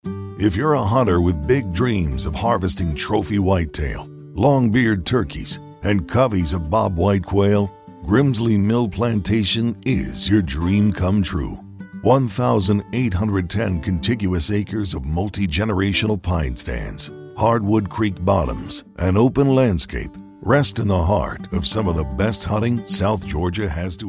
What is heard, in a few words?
Rough Rugged Type Read